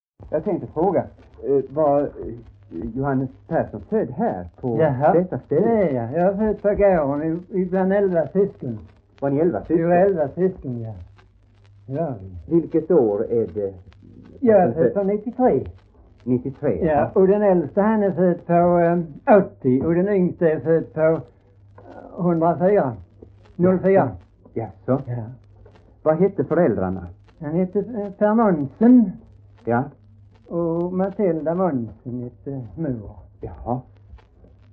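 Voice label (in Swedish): Hörja Skåne, dialekter; Folkminnen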